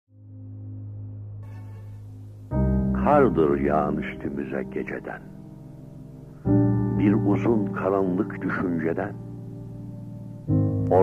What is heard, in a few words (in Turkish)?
kendi sesinden siirler; ahmet muhip diranas